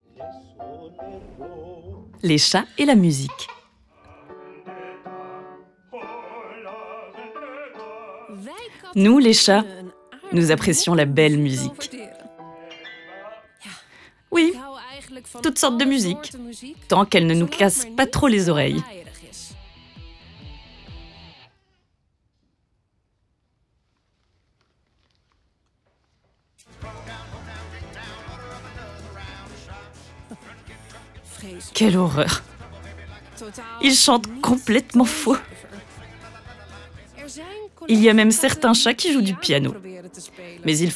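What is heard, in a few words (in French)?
NARRATION - Documentaire, comédienne; Les Animaux Nettoyeurs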